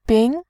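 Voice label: "bin", Mandarin Chinese, tone 2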